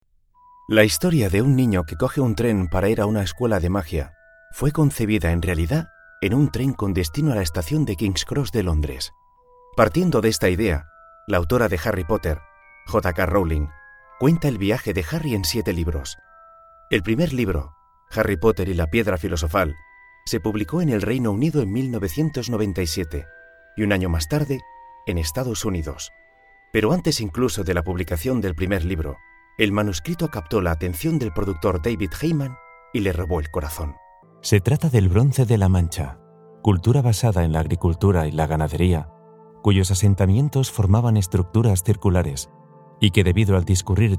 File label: spanish audio guides